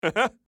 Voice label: Emote Positive

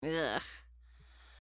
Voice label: Emote Negative

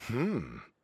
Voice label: Emote Positive

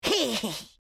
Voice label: Emote Positive

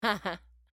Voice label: Emote Positive

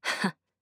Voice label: Nao Emote Positive

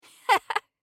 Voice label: Emote Positive; Juliette

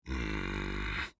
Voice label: Drek'ar Emote Negative

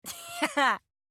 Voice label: Asher Emote Positive

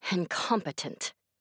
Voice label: Emote Negative

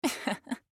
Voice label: Emote Positive; Estelle

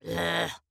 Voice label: Emote Negative; Vyce

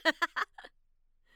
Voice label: Emote Positive; Mako

